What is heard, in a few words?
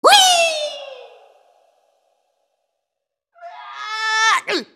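dying, English; Rumble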